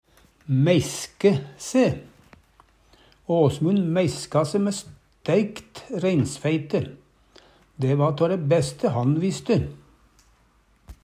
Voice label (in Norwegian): mæiske se, Numedalsmål